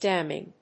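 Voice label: "damning", English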